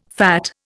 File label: "fat", Indian